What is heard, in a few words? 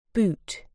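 "boot", British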